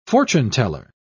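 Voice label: "fortuneteller", English, British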